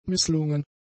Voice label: "misslungen", German; adjectives

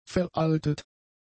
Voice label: "veraltet", German; adjectives

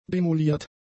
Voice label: "demoliert", german; adjectives

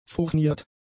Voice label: "furniert", German; adjectives

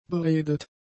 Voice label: "beredet", German; adjectives

